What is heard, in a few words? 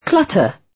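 "clutter" British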